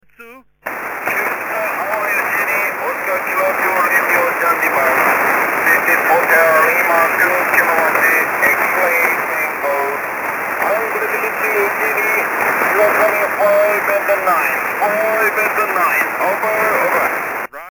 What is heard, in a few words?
80m SSB